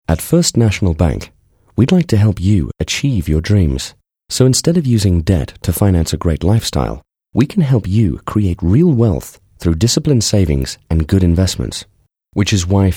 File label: Style Corporate South Africa; First National Bank